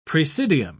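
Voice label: "praesidium", English, British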